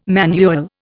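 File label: "manual", Indian English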